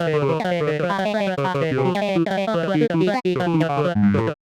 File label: medium clear chipper, voice archetypes; voxel audio preview